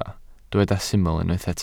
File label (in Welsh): "syml" Welsh